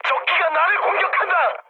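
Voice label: Korean aircraft damaged mood medium; War Thunder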